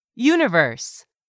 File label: "UNIVERSE", English; 3000 words